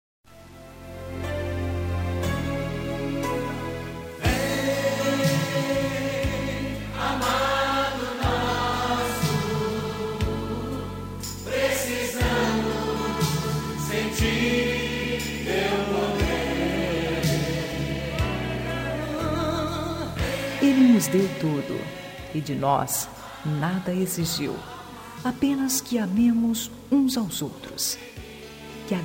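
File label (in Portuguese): Evangélica O Salvador E JESUS, female, telemensagens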